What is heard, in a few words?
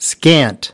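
"scant", English word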